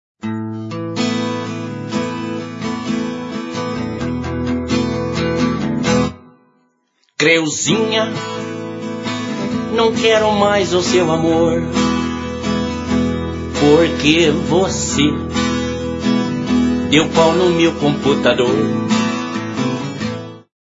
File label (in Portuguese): Vinheta; Pau no Computador